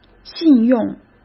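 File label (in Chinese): "信用", Mandarin Chinese; hsk; chinese-flashcards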